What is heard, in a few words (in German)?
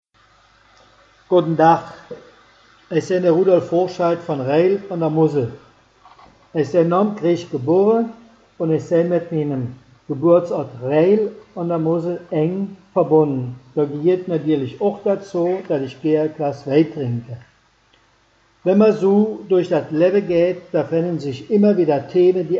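vorstellung, O-Ton Hunsrück; Reil (Mosel)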